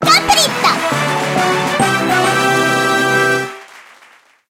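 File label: guild raid complete ja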